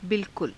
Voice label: "bilkula", Hindi